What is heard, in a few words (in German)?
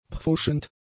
"pfuschend", German; adjectives